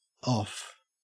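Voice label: "off", Australian English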